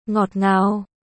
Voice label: "Ngọt ngào", ベトナム語